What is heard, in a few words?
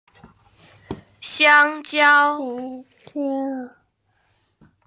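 "xiang1jiao1", Mandarin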